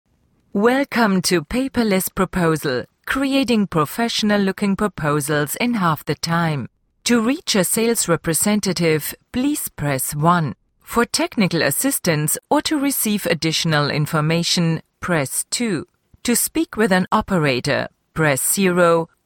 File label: English secondary